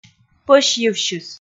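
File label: "пӧсьйывсьыс", Komi, female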